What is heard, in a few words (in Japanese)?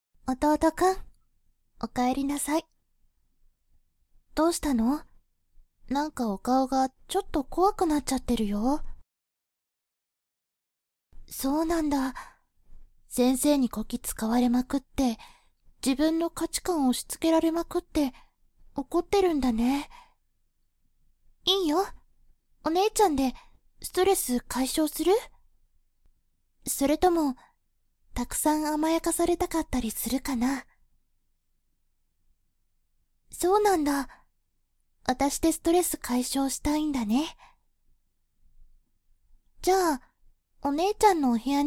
ヤンデレボイス ヤンデレお姉ちゃんメイド服, ASMR; スピンオフ作品集